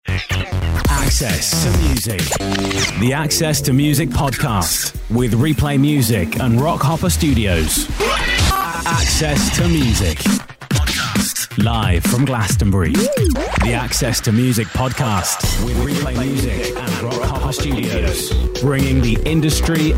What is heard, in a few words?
Audio Imaging